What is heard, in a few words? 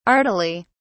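"artily", English, British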